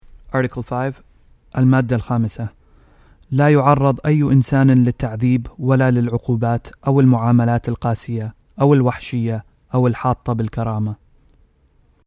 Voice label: Arabic